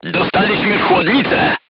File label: voice message radiator damaged, polish